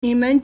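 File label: "ni3men2", Mandarin Chinese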